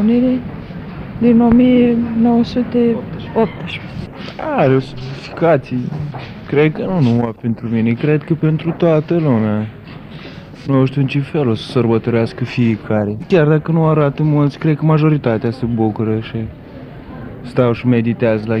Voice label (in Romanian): VOX POP semnificatie 1 decembrie